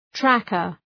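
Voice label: "tracker", English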